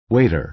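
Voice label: "waiter", American English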